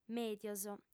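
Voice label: "mèdios" Sardinian, female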